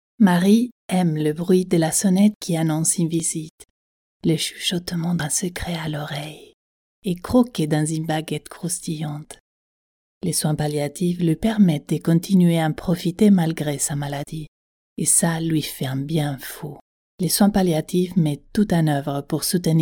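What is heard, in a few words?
French commercial warm intimate positive, female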